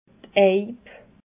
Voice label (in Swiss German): "eib", alsace